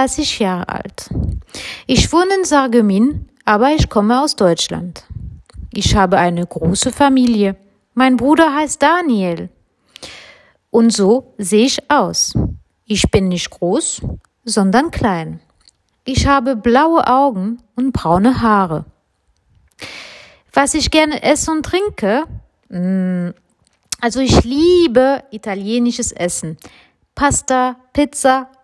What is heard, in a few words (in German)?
Frau Gregorius stellt sich vor